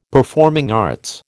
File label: "performing arts", American